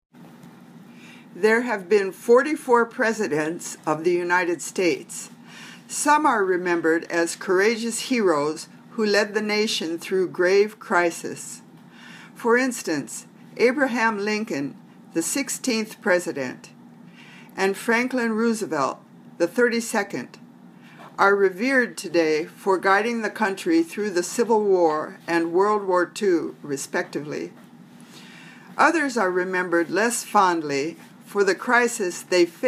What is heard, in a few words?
Reading Drill